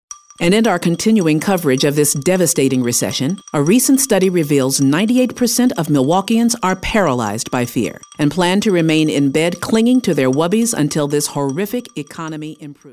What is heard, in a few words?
newscaster